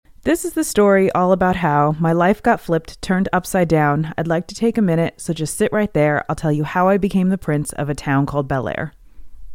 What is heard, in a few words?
SM7B Mackie, microphones